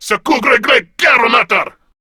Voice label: Attack, Male

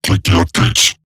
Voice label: Patrol Robot Large, Barks, Battle

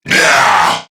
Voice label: Threatened Crewmen, Battle; Dialog; Barks; Warframe; CorpusCrewmen; CrewmanA

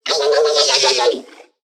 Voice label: Necramech Repair Drone Idle Surprised; Battle Barks

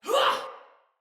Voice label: Attack Sinecure Soldier Female

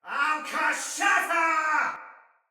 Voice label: Attack Heavy Soldier Male; Barks Battle; Sinecure Soldier Male A

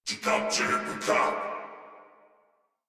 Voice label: Surprised Crewmen, Battle Barks; Dialog; Warframe; Corpus Crewmen; Crewman C

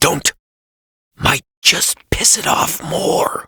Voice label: Adult Op, en; New War; Ascend Tower

